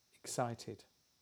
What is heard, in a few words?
excited; how do you feel